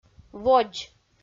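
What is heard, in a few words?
"водз", Komi, female; audio dict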